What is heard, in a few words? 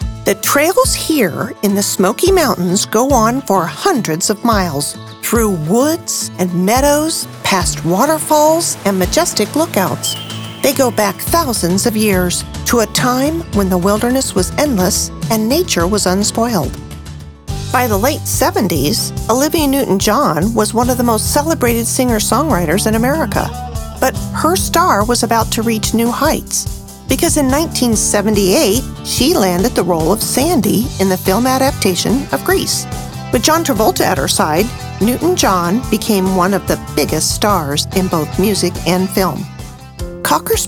Narration